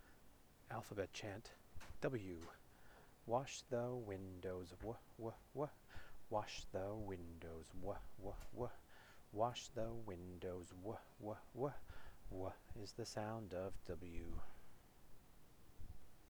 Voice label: alphabet chant 'w' fast

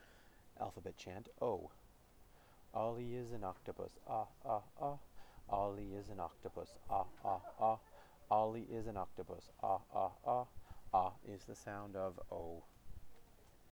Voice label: alphabet chant 'o' fast

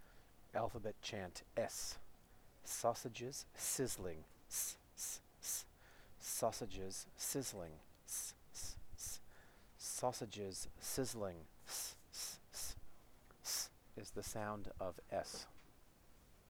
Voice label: alphabet chant 's' slow

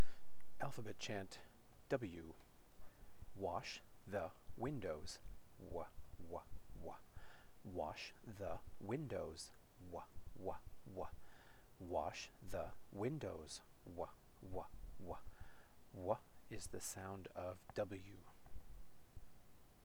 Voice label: alphabet chant 'w' slow

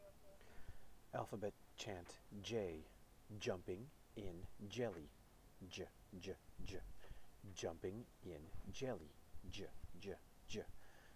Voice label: alphabet chant 'j' slow

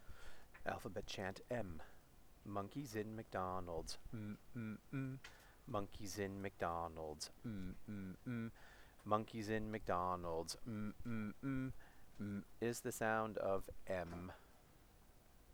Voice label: alphabet chant 'm' fast

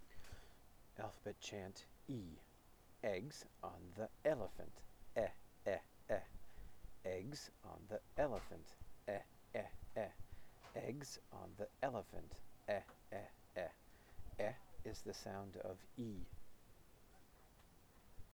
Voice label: alphabet chant 'e' slow

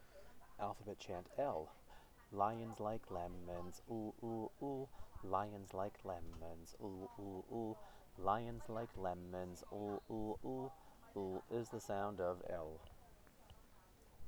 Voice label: alphabet chant 'l' fast

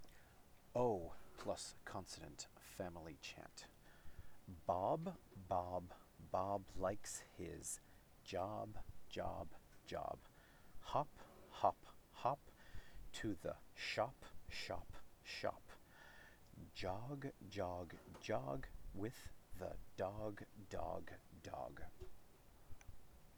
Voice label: o family chant

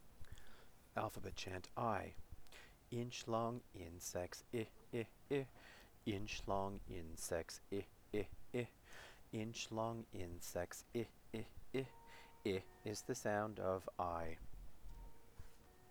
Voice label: alphabet chant 'i' fast

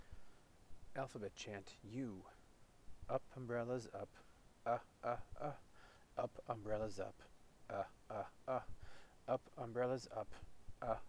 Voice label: alphabet chant 'u' fast

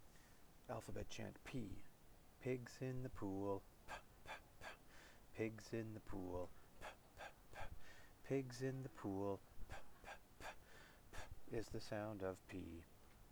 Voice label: alphabet chant 'p' fast

